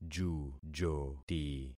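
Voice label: "jujoti", concatenated syllables; Affixing experiment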